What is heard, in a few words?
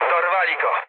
aircraft destroyed mood med, speech pol